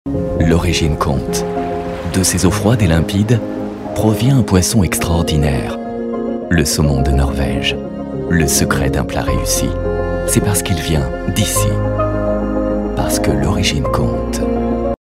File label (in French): doux chaleureux; SEAFOOD FROM NORWAY; Saumon De Norvege